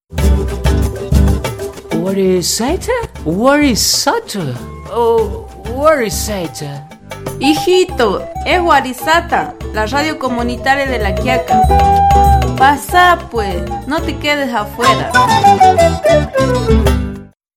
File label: radio spot; radio warisata